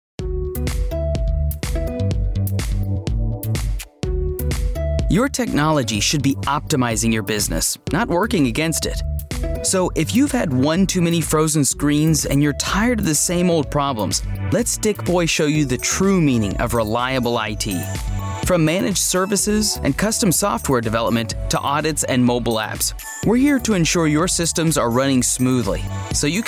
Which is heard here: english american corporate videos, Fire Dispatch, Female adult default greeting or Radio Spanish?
english american corporate videos